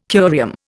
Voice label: "curium", Indian

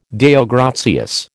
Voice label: "Deo gratias", American

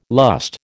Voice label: "lust", American

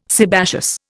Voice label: "sebaceous", Indian

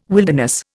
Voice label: "wilderness", Indian

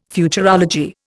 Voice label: "Futurology", Indian

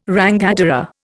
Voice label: "rangatira", Indian

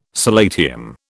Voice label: "solatium", British

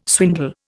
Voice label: "swindle", Indian

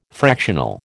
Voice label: "fractional", American

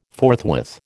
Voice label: "forthwith", American

